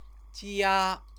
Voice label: "jia", Chinese; Chinese lessons

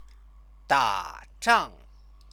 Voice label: "dazhang", Chinese; 打 仗; fight battle; verb-object; Chinese lessons

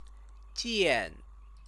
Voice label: "jian", Chinese

